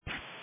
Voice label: Sheriff Dispatch